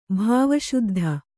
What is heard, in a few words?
"ಭಾವಶುದ್ಧಿ", Kannada